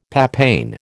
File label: "papain", American